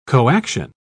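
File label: "coaction", English, British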